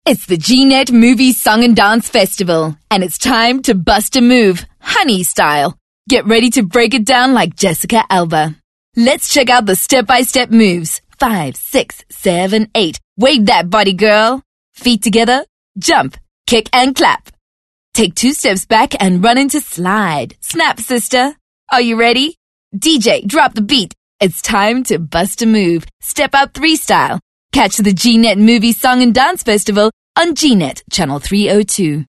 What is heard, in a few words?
English Skill Promo